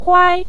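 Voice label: "huai" neutral tone, Mandarin Chinese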